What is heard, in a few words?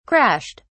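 "crashed", English, British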